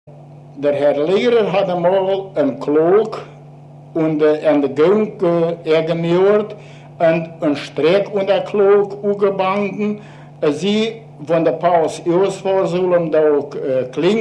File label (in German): der hund an der pausenglocke, sprachaufnahmen, reussen